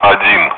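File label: Speech, Russian, air traffic control; Digits; DCS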